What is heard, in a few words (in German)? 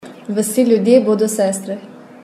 slowenisch